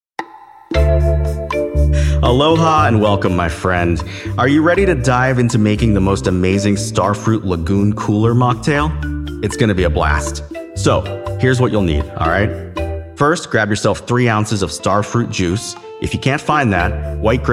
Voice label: Radio Promo; Starfruit Lagoon Cooler Mocktail